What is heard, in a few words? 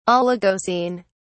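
"oligocene", British English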